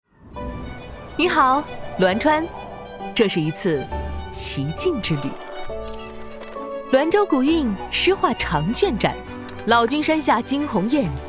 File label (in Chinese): 女, 旁白, 自信介绍; 你好 栾川